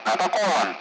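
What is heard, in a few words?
Speech, Russian, loud; Messages; Allied Flight; defending; DCS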